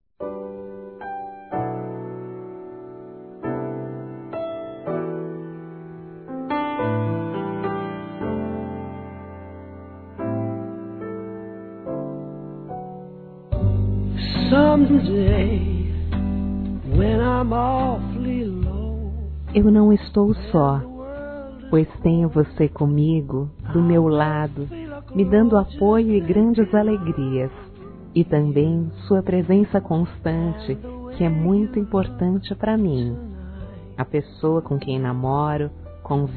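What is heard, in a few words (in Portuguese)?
GERAL, female, Dia Dos namorados; Telemensagens 2017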